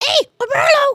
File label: Pick me, emotes